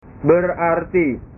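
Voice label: "berarti", Indonesian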